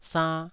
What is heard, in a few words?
"sann2", taiwanese